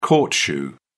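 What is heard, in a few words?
"court shoe", British English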